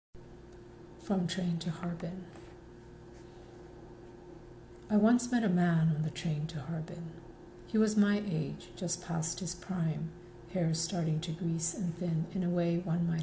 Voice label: Reading; Train to Harbin